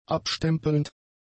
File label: "abstempelnd", german; adjectives